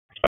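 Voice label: Police Northwest District Tactical 1, p25; Raleigh; wake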